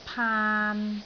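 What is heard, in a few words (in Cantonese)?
"paan3", Cantonese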